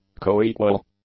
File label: "coequal", English; adjectives